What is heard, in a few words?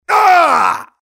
mobster pain severe